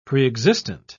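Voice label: "preexistent", English, British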